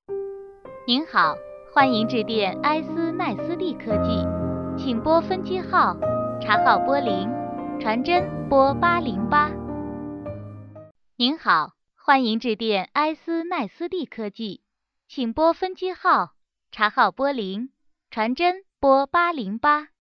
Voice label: chinese mandarin female; dictor